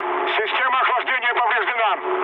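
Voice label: Russian damaged water mood low, speech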